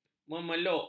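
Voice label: "memeluk", Malay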